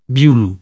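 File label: "violon", Occitan Languedocien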